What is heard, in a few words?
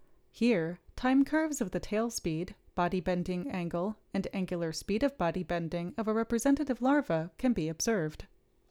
Gong Voiceover